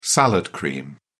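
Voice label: "salad cream", British English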